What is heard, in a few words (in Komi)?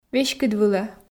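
"веськыдвылӧ", Komi, female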